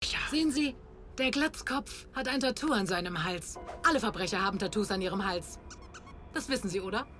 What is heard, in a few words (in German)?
Synchron Kinofilm Beobachtend; LOFT VOICES